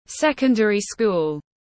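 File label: "Secondary-school", English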